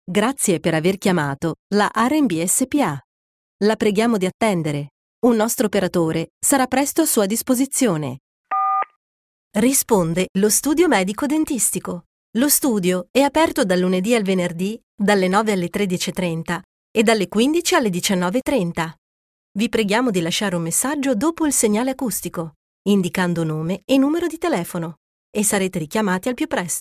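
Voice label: Italian IVR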